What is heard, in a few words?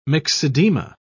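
"myxedema", British English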